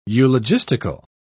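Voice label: "eulogistical", British English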